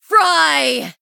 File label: Female High Elf Attack